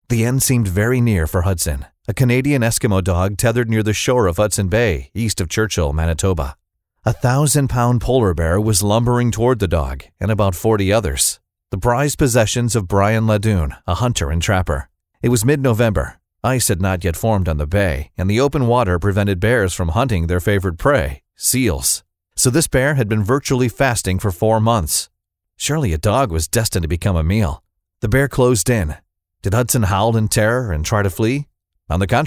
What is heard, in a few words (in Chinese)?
外籍男, 有声读物, Audiobook; The Bear